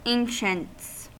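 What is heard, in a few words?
"ancients", US English; pronunciations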